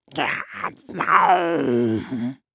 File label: Horror Scary Monster Speak